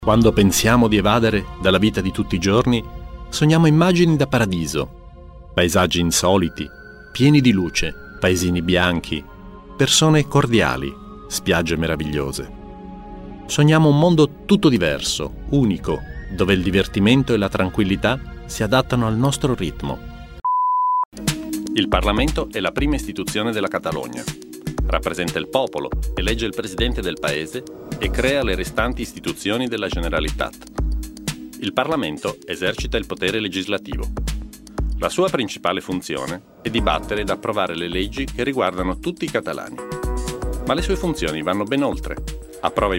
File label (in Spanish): narracion italiano